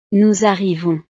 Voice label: "nous arrivons", frances

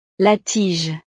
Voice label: "la tige", frances; verduras

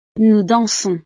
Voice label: "nous dansons", frances

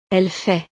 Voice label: "elle fait", frances

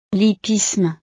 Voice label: "l hippisme", frances; deportes

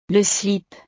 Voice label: "le slip", frances; el calzoncillo corto; ropa

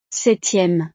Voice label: "septieme", frances

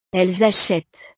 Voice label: "elles achetent", frances

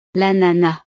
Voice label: "l ananas", frances; frutas

